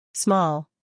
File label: "small", English